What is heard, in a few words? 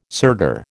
"sirdar", American